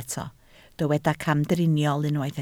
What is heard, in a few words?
"camdriniol", Welsh